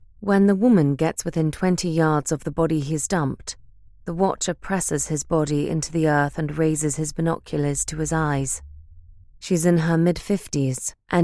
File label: General British Audiobook Accent Sample